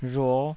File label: "rhuo5", taiwanese